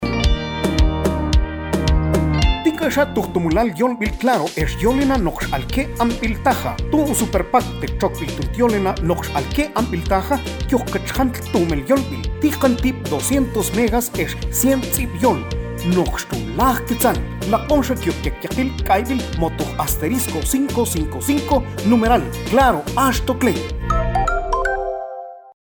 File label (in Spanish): Man Superpack Llamadas; CLARO